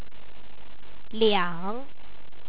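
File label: "lia3ng", Chinese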